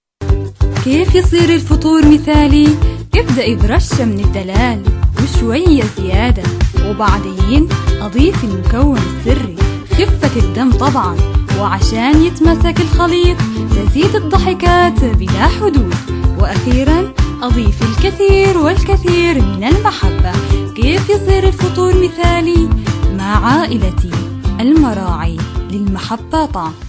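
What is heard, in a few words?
Arabic, female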